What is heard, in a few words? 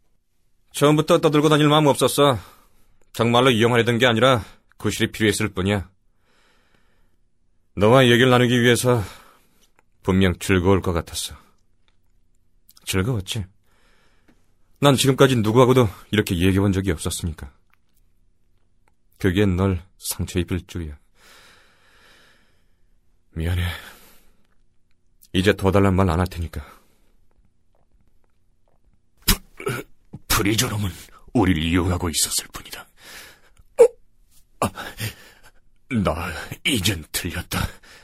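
Korean, male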